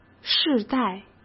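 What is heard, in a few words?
"世代", Mandarin Chinese; hsk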